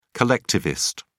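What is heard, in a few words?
"collectivist", British English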